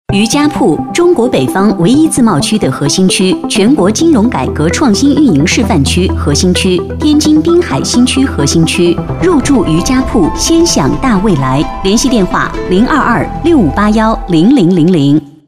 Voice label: 女 宣传类 招商宣传简介

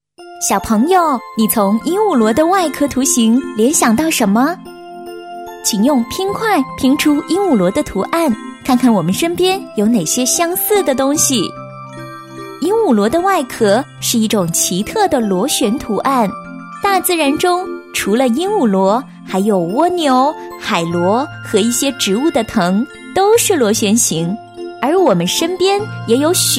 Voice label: 课件配音; 鹦鹉螺

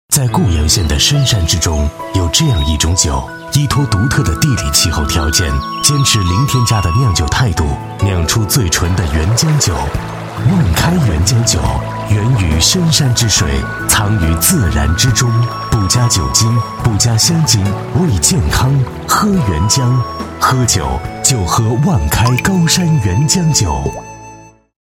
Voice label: 男 广告 磁性 品质 大气 成熟, 国语男声配音; 万开高山原浆酒

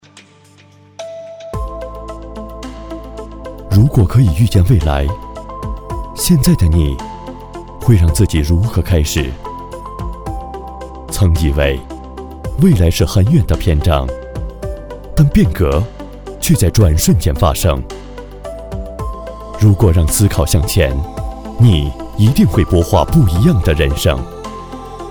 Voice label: 男 大气磁性旁白 科技城

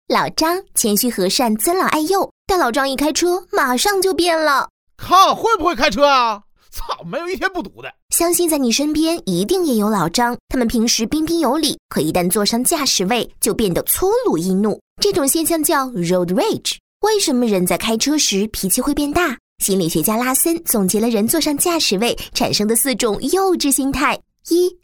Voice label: 国语女声配音 调侃 旁白; 病毒视频; 司机脾气大

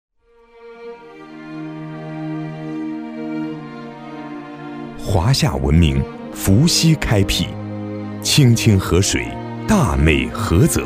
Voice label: 男, 抒情; 菏泽

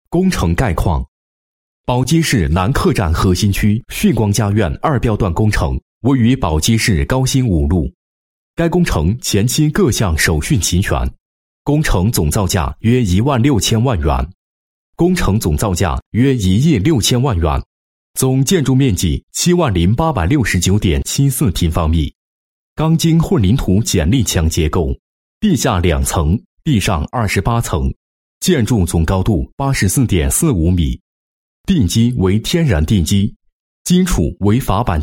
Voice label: 男-工程项目, 配音案例; 旭光佳苑二标段工程

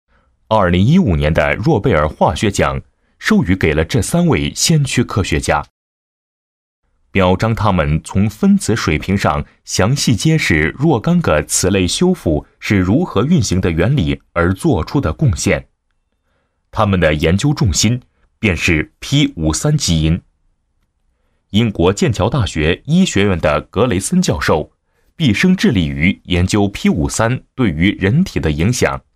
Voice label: 男 解说 解说配音; 诺贝尔化学奖

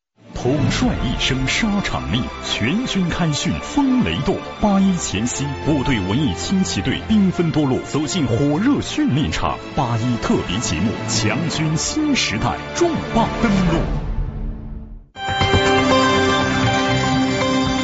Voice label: 男 预告; CCTV7

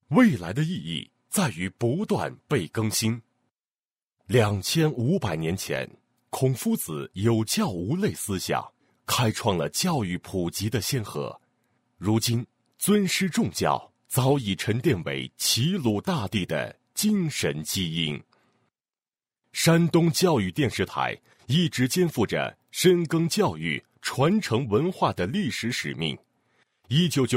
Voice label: 男声 年轻磁性 专题; 山东教育电视台

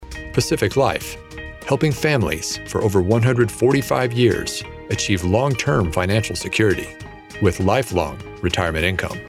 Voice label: 外籍英男 保险行业 宣传片

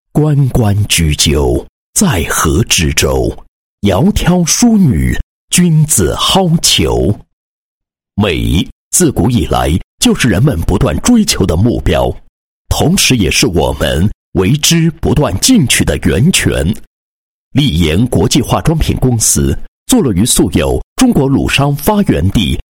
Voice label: 男-企业-化妆品公司, 配音案例; 丽颜国际化妆品公司

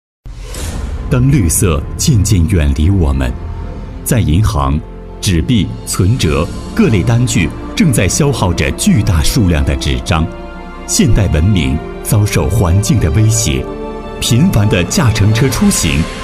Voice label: 男89-北京市商业银行, 国语男声配音

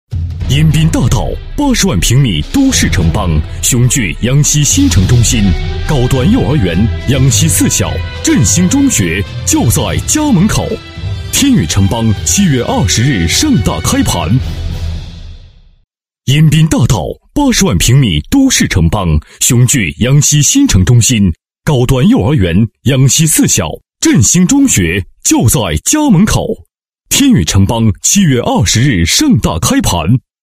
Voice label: 男 广告 房地产开盘, 国语男声配音; 天宇城邦

